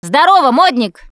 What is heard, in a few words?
name gambler, russian, Survivor Voice Producer